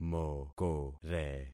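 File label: "mokore", concatenated syllables; Affixing experiment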